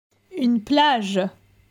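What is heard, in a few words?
"Une plage", French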